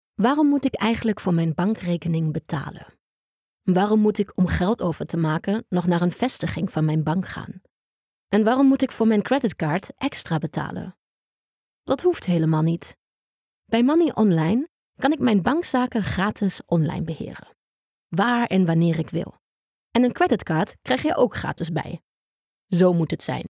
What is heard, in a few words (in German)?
Werbung NL; LOFT VOICES